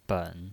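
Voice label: "ben", Mandarin Chinese, third tone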